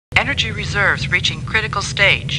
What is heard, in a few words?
Star Trek Computer Voice; StarTrekLCAR